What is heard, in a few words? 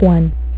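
"one", female; MacCribbage; InterStellar MacCribbage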